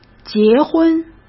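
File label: "结婚", Mandarin Chinese; chinese-flashcards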